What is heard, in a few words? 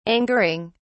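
"angering", British English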